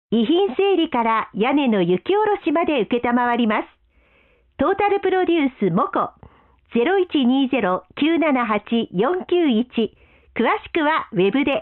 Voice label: bus announce